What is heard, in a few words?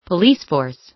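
"police force", American English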